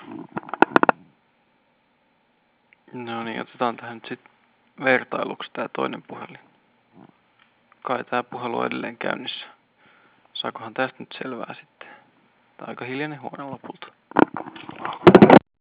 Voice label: landline, call quality; openmoko